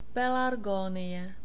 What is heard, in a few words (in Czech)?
"pelarg", Czech; long vowels